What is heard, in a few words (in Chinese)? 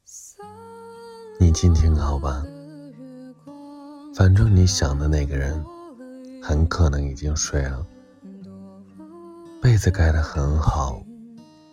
电台音